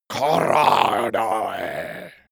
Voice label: male curse evil